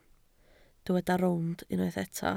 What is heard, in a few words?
"rownd", Welsh